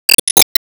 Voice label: Emo Call Name

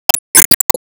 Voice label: Emo Confirm

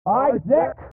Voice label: Mom Vox Filtered; Isaac

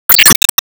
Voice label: Emo Greet

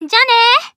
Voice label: Emo Greet

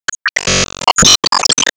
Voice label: Emo Question

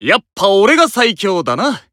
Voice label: Emo Glad

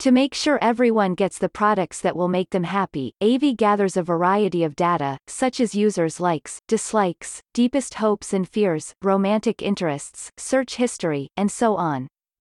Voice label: Monologue; Going Under; Avie

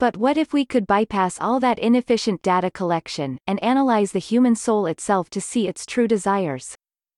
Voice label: Monologue; Avie

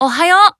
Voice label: Emo Greet

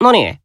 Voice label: Emo Reply

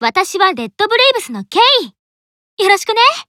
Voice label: Emo Warcry

